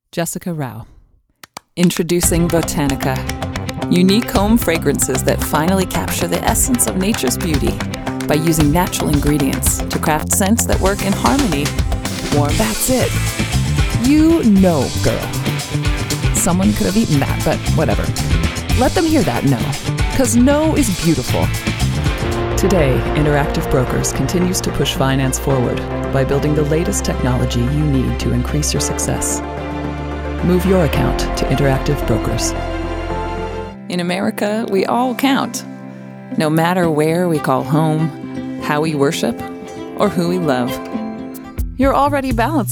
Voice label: Voice Over; Reel; Internet Session, Los Angeles